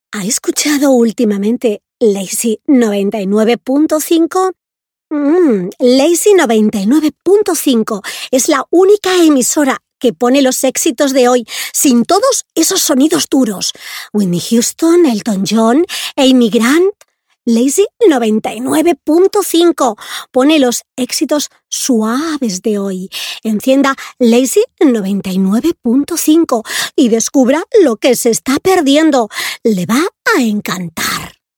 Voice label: Spanish EU